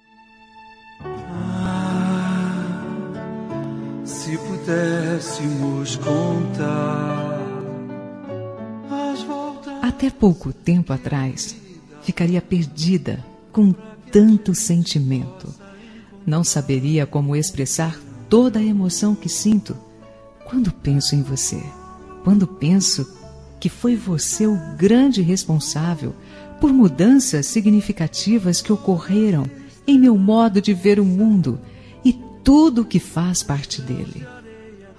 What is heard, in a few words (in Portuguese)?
Romântica Suave